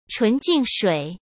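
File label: "纯净水", Mandarin Chinese; hsk; chinese-flashcards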